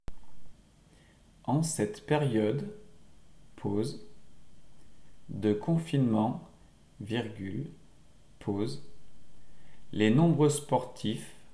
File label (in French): dictee